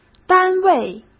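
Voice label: "单位", Mandarin Chinese; hsk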